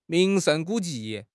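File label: "ming2 sheng4 gu3 ji4", Chinese; 汉语口语新时代-初级下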